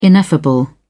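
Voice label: "ineffable", British English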